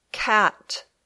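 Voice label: "cat", English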